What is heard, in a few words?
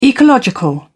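"ecological", British English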